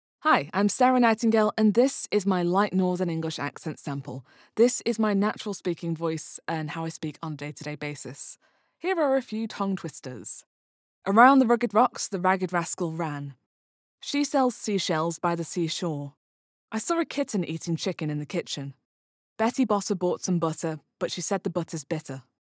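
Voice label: Accent Sample Light Northern, female voice; manchester; manchester | natural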